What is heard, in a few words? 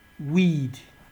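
"weed", Nigerian Pidgin, male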